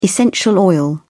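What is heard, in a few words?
"essential oil", British English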